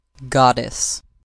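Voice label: "goddess", US English; pronunciations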